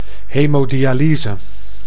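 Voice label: "hemodialyse", Dutch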